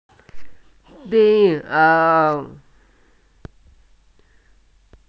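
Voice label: "pi2ao3", Mandarin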